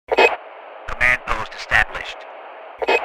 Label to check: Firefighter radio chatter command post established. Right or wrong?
Right